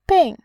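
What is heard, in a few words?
"bin", Mandarin Chinese, tone 4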